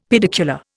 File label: "pedicular", Indian; Dictionary